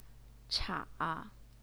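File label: "cha", Mandarin Chinese, third tone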